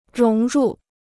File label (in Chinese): "融入", Chinese